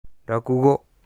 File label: "rakugo", Japanese utterance